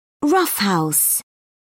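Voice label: "roughhouse", British English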